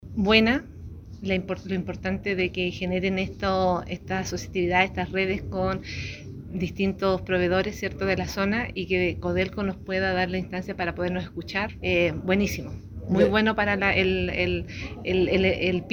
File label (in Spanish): Comercial